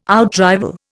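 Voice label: "outrival", Indian English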